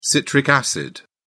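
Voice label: "citric acid", British English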